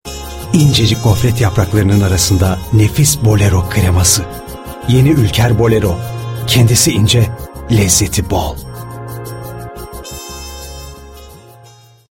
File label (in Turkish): reklam karizmatik; ulker